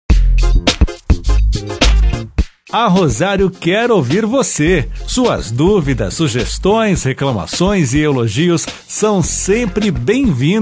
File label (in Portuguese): SPOT FALE CONOSCO; ofertas; shopping; ROSARIO